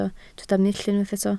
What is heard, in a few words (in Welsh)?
"mull", Welsh